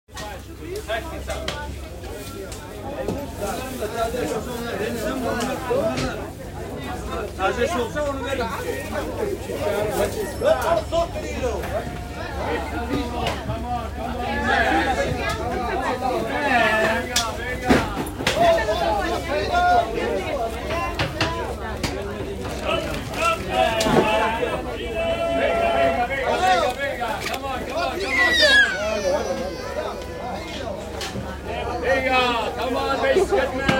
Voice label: People speaking market + screaming salesperon, Rotterdam; Rotterdam Field Recordings